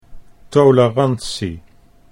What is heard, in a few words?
"tolerantie", Dutch